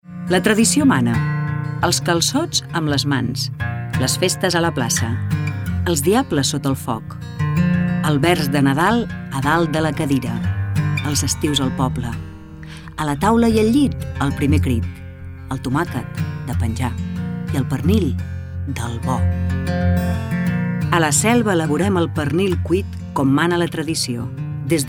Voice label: female catalan food comercial; ideasonora

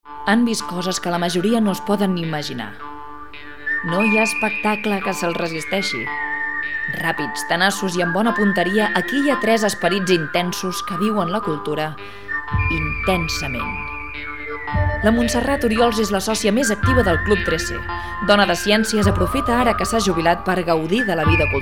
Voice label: female catalan theater comercial; ideasonora

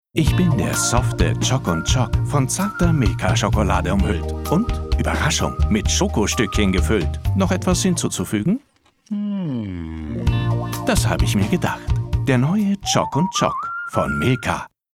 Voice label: male german commercial; ideasonora

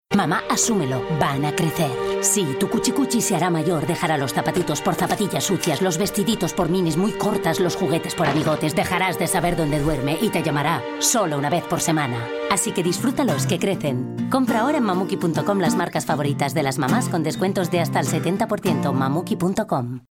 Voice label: female spanish baby comercial; ideasonora

